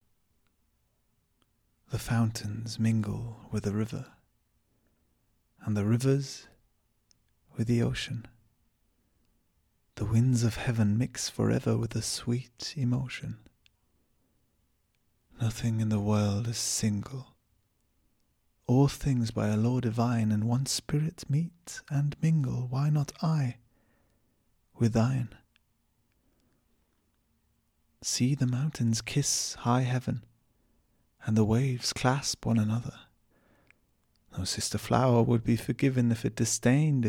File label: ENG Poem Love; LOFT VOICES